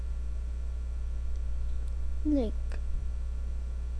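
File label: "lick", spoken word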